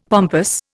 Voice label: "pompous", Indian; Dictionary